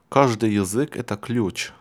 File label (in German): russisch